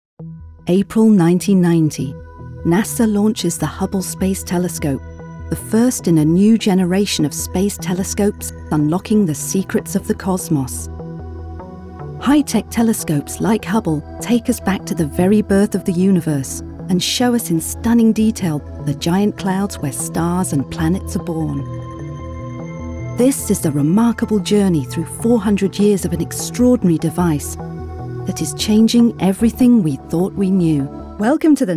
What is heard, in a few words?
narration reel